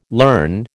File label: "learn", American